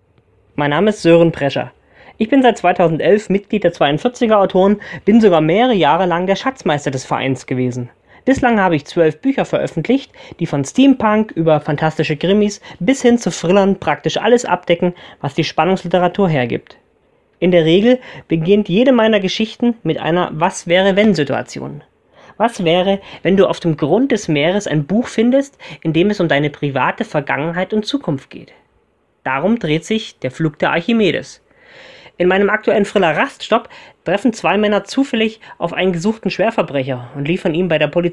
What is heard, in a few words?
Radio ID